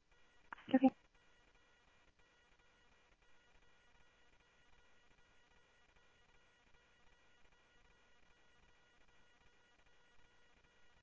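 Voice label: police radio scanner traffic, Mesa Simulcast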